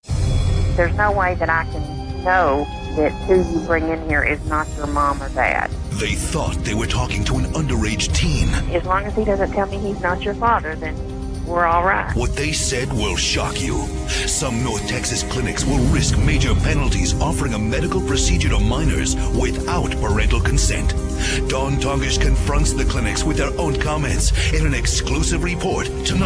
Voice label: News Teen Abortion